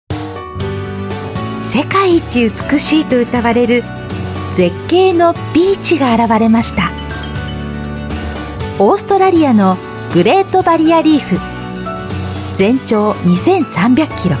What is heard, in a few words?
Japanese voice sample